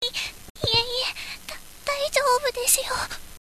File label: girl